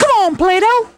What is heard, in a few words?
"come playdoh", vocal